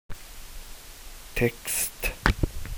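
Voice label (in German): "Text", German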